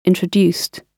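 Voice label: "introduced", British English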